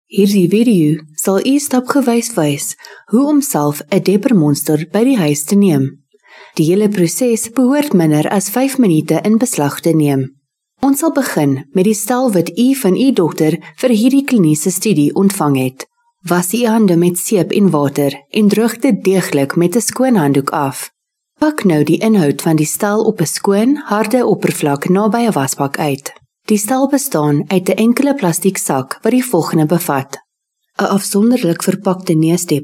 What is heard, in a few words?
Afrikaans commercial